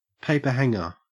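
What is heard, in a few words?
"paperhanger", Australian English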